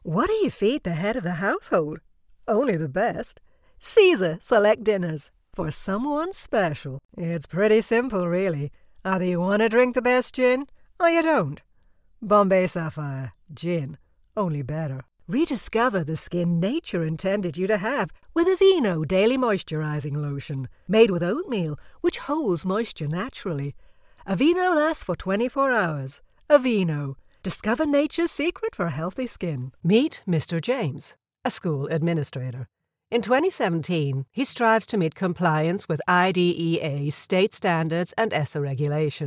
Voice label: Amerikan Ingilizcesi Kadin, Kadın Ses

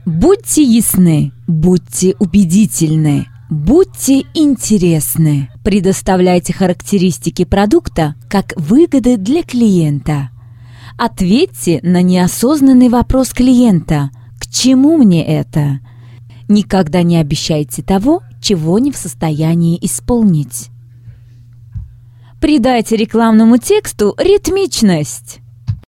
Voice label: Rusca Kadin, Seslendirme, Kadın Ses

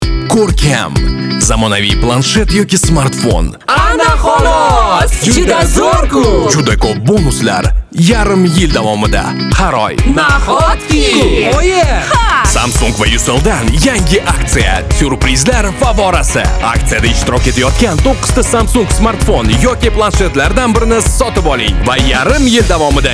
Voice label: Ozbekce Erkek, Erkek Ses

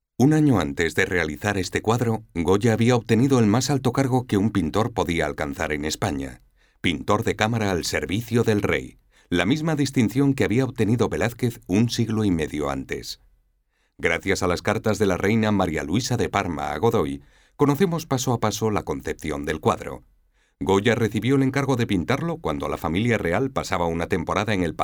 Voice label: Ispanyolca Erkek Ses; seslendirme

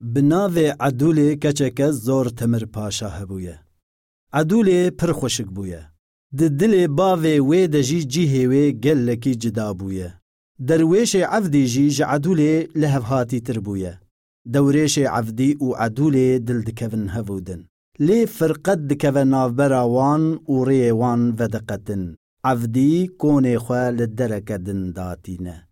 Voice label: Kurtce Erkek Kurmanci, seslendirme; erkek sesler

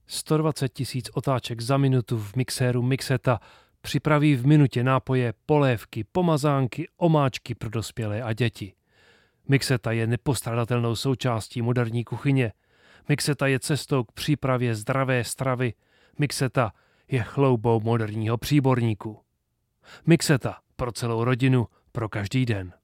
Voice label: Cekce Erkek, Erkek Ses

